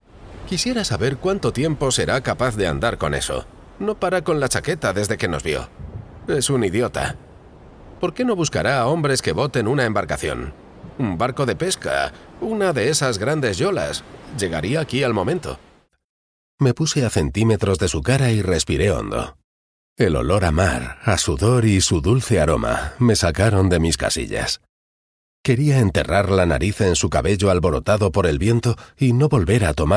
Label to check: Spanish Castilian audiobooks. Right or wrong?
Right